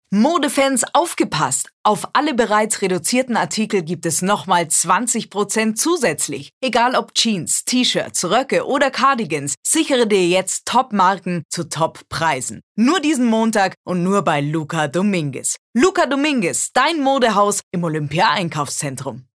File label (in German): Dynamisch